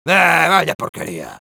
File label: Sniper jeers, es; "Ahh, vaya porquería."